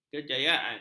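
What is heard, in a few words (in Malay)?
"kejayaan", Malay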